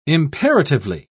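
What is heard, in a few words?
"imperatively", British English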